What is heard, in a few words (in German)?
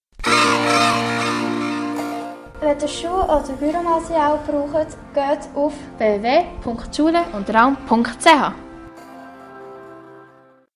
Werbejingle Schule und Raum; Bolligen